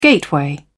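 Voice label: "gateway", British English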